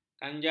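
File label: "kanjal", Malay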